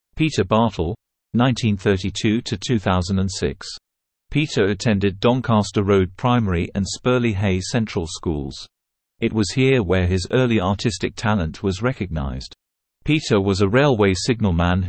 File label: Male